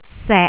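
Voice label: "sek6", Cantonese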